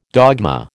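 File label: "dogma", American English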